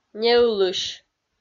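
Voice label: "неылысь", Komi, female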